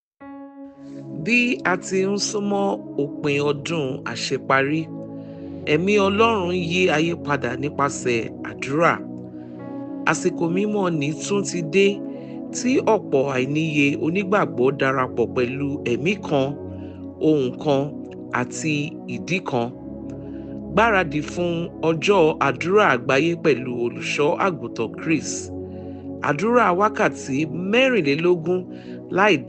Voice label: yoruba jingle

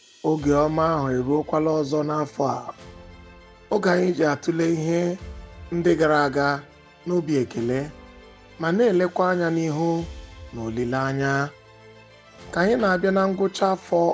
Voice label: night service jingle translated in igbo

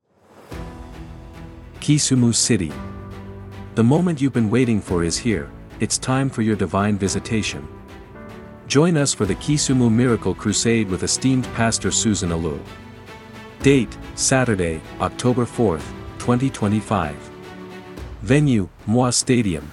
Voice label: radio jingle; KISIMU

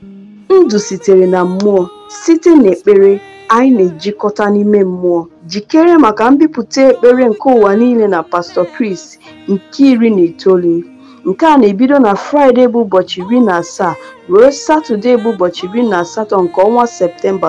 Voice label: IGBO JINGLES

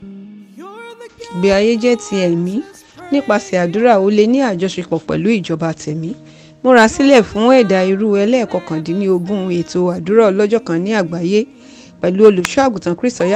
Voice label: YORUBA JINGLES

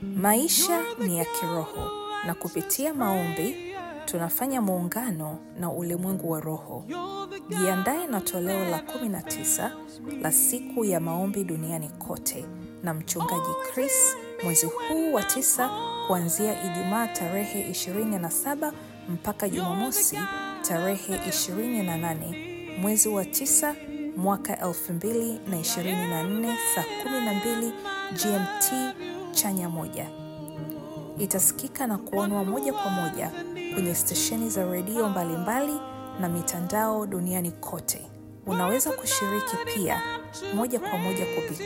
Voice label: SWAHILI JINGLES

